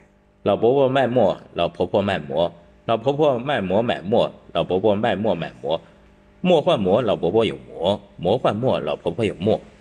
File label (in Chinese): 顺口溜; 墨与馍